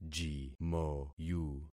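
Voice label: "jimoyu", concatenated syllables; Affixing experiment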